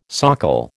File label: "socle", American